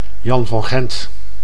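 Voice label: "jan van gent", Dutch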